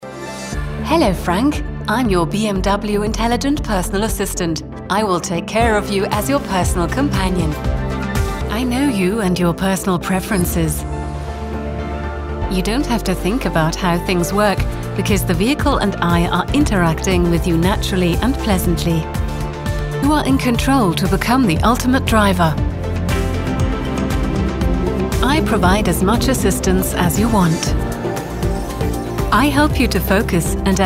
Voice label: EN Messefilm; BMW iDrive